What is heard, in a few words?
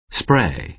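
"spray", American English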